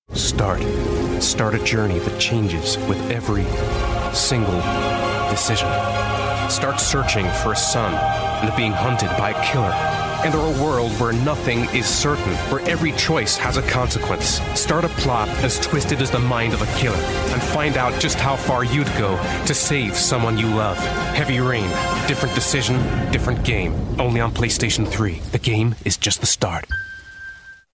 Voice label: english american movie trailers